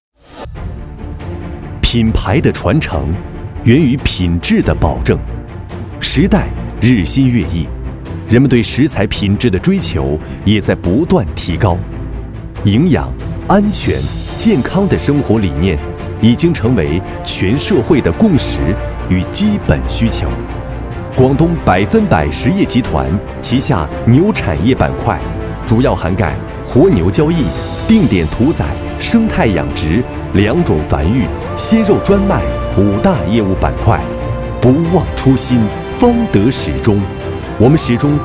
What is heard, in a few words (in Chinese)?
大气专题 企业